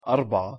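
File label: "أربعة" Arabic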